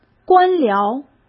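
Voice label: "官僚", Mandarin Chinese; chinese-flashcards; hsk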